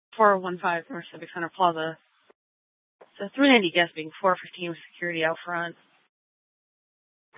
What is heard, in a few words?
PD Dispatch